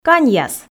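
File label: "каньяс", Komi, female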